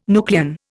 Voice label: "nucleon", Indian

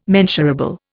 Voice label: "mensurable", Indian

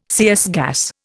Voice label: "CS gas", Indian

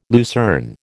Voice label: "lucerne", American

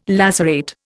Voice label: "lacerate", Indian

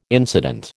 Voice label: "incident", American

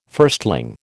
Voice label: "firstling", American

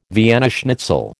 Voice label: "Vienna schnitzel", American

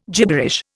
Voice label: "gibberish", Indian

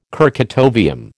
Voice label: "kurchatovium", American

